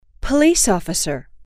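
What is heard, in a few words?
"police officer", English, female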